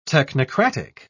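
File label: "technocratic", British English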